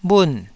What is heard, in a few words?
"bhun4", taiwanese Syllables